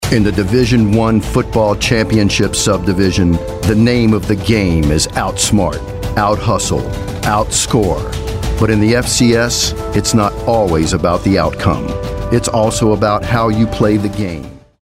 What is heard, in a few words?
authoritative